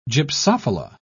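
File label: "gypsophila", British English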